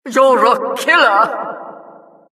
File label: announcer, vo, killstreak